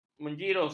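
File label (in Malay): "menjirus", Malay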